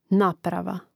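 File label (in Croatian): "naprava", Hrvatski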